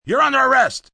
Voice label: Under Arrest, Police, male: 'You're under arrest', (human voice speaking); Talking Sound Effects